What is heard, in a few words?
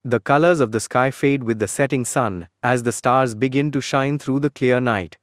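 Indian English; en-IN; iOS Sounds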